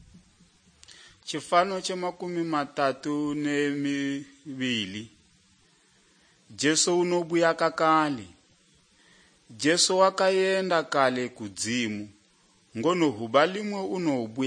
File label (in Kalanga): good news kalanga lilima, drama